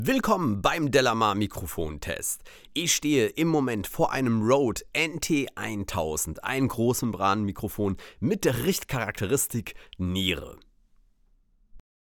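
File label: speech male rode nt1000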